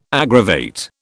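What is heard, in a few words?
"aggravate", British English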